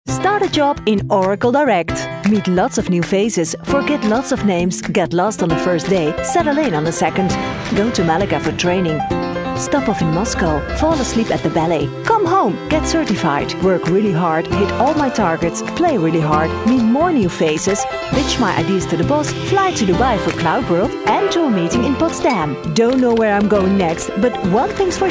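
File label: Dutch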